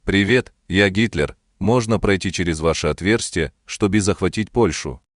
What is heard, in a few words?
voice message; ddnet